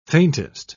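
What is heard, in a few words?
"faintest", British English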